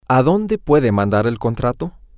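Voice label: where to can you send the contract, Spanish, male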